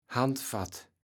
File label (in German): "Handfatt", Platt